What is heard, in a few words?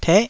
"teh9", taiwanese Syllables